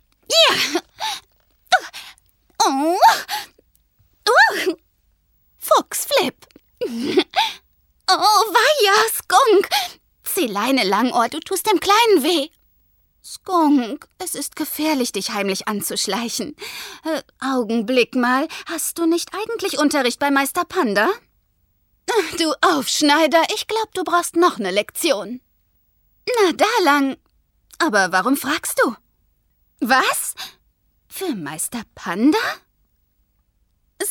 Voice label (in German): Charge Comic